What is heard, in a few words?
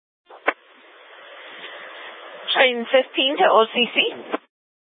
Public Transit System Light Rail Ops, scanner; Regional Wireless Cooperative (RWC) - South Mountain High; Valley Metro